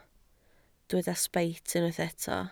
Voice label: "sbeit", Welsh